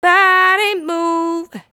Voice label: "Body Move", vocal phrases; Ultimate Vocals